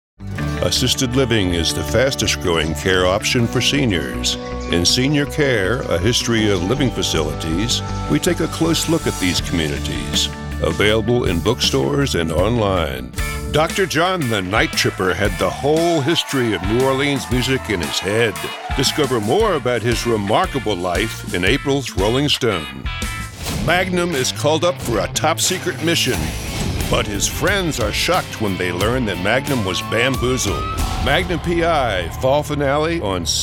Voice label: Promo